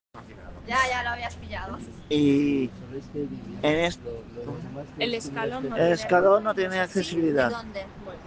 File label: Voice message